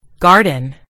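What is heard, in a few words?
"garden", American English; gcompris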